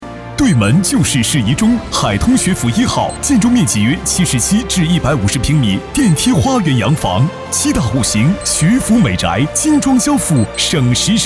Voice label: 男 广告; 海通学府

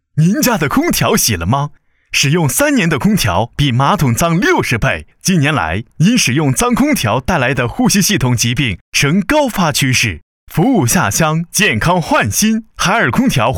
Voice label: 男 激情大气 广告 促销

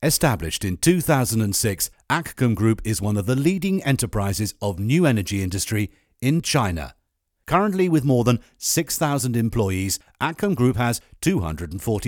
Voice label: 外籍 公司宣传片; Akcome爱康科技

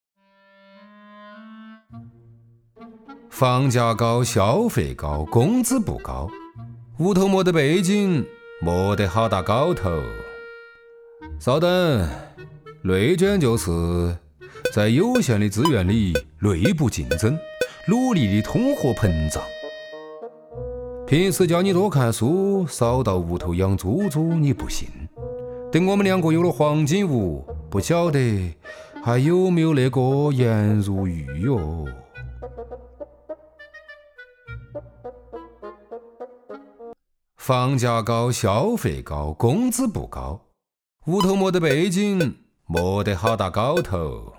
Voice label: 重庆话; 长沙银行; 守住钱袋子; 创造幸福家

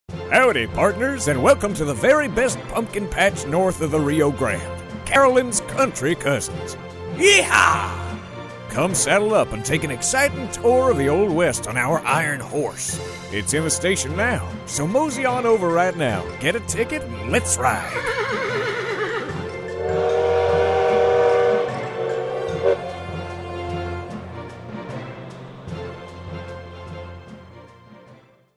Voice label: 外籍英男 模仿西部牛仔

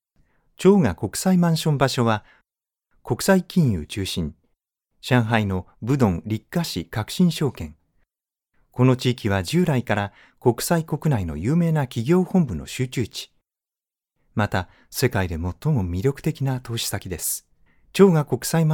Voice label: 日语男 房地产宣传片 声音柔和

